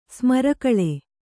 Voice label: "ಸ್ಮರಕಳೆ", kannada

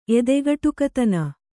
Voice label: "ಎದೆಗಟುಕತನ", Kannada; edegaṭukatana; noun; cruelty; brutality; savageness.

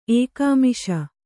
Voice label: "ಏಕಾಮಿಷ", kannada

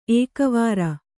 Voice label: "ಏಕವಾರ", Kannada

